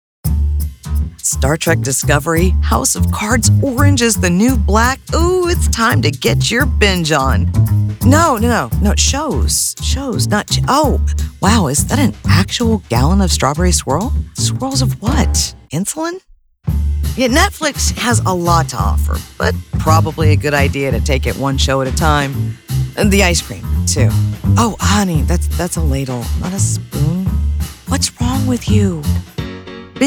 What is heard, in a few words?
Explainer